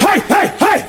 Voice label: hey hey hey hey male